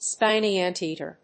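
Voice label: "spiny anteater", English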